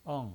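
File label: "eng" Mandarin Chinese, fourth tone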